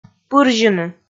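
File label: "пырзьыны", Komi, female; kpv audio dict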